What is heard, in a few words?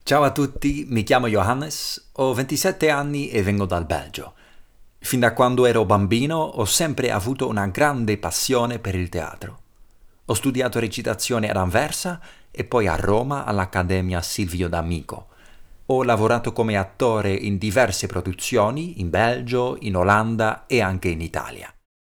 Voice over; IT